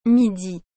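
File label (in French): "midi", French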